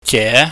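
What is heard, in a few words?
"je", Cantonese, mid rising tone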